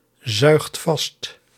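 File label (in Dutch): "zuigt vast", Dutch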